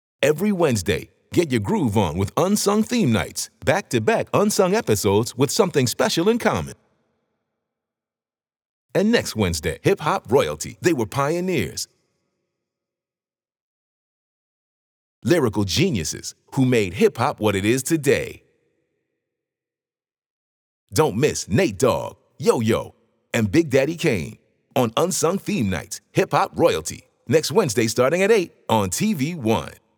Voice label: Hip Hop VO; Unsung Theme Nights